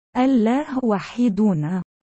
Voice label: "allah wahidun", arabic